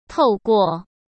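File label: "透过", Mandarin Chinese; chinese flashcards; hsk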